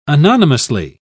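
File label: "anonymously", British English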